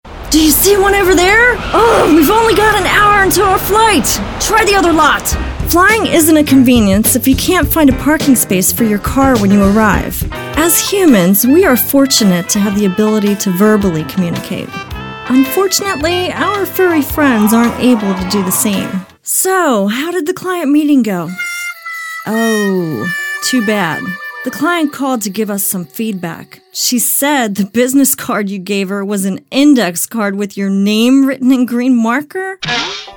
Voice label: Commercials